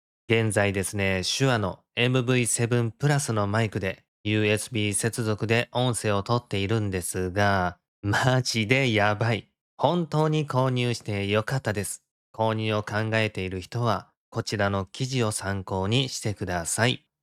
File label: shure mv7